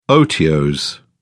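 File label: "otiose", British English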